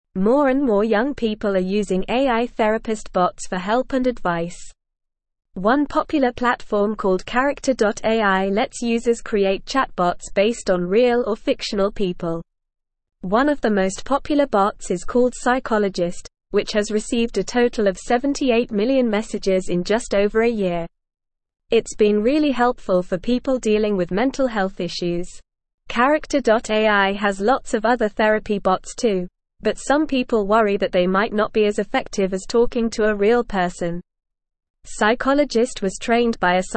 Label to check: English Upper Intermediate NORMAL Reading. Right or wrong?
Right